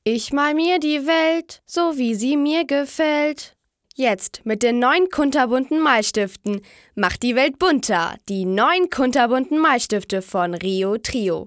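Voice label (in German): Mädchen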